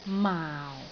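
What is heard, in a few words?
"maau4", Cantonese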